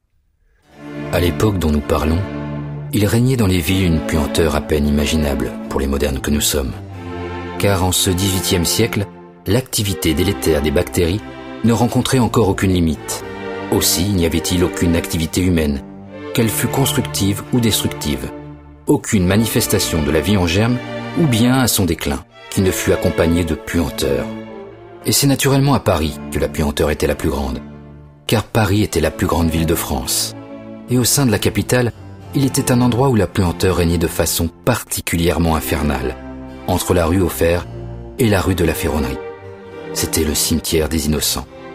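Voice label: French, male, narration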